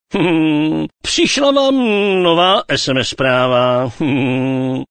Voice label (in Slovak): sms hlásky cz